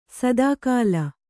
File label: "ಸದಾಕಾಲ", Kannada